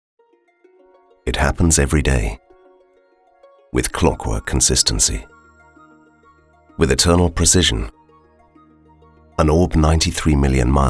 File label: documentary